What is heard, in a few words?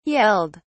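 "yelled", British English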